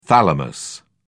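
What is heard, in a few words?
"thalamus", English, British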